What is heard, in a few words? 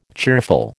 "cheerful", American